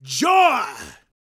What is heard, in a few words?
preachin, gospel preacher